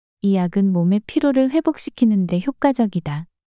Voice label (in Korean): 이 약은 몸의 피로를 회복시키는 데 효과적이다 문장 여자